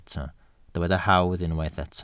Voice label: "hawdd", Welsh